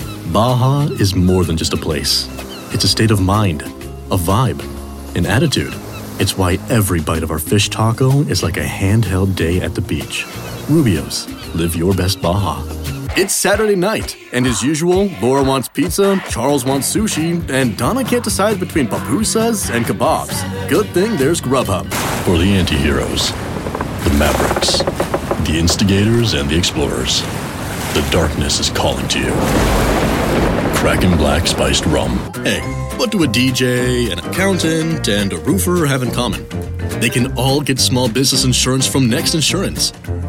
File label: Commercial